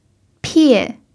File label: "pie4", Mandarin pinyin